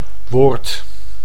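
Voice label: "woord", Dutch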